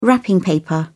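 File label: "wrapping paper", English, British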